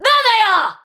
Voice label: Player Spotted Ranged, Battle Barks; Tau; Tau Dax